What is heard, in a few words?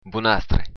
good luck, occitan